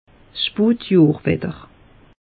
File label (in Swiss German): "spotjohrwetter", alsace; meteo